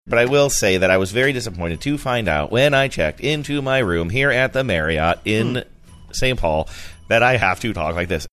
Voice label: saying but i will say that i was very disappointed funny cadence